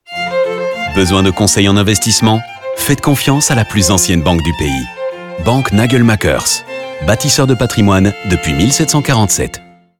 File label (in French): pub radio; Nagelmackers